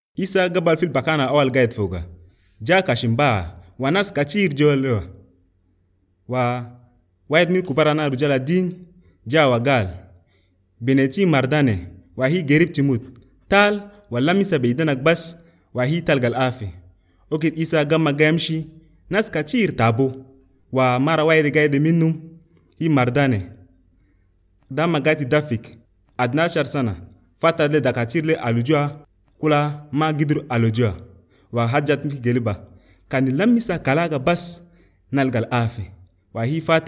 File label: Arabic Chadian; Jésus redonne la vie; Jairus’ Daughter and the Bleeding Woman